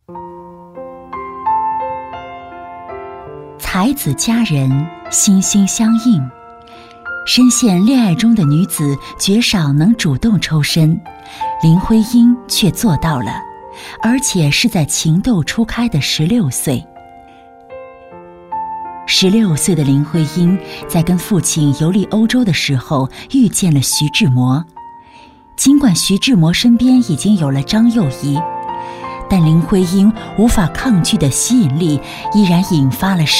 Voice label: 女 朗诵 散文故事; 林徽因徐志摩

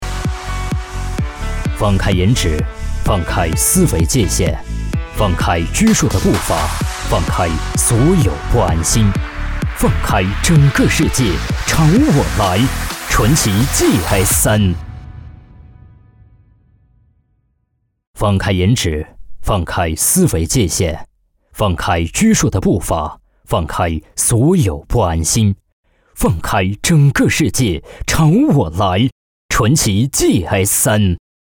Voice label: 男 广告

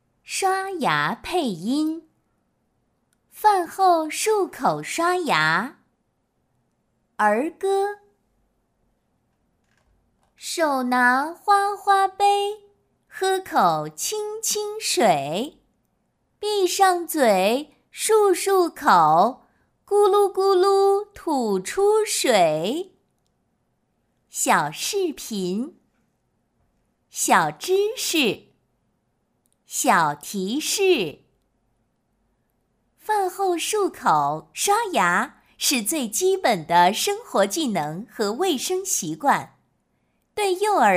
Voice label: 课件; 刷牙成品

